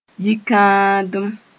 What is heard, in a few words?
"yikãadem", Mooré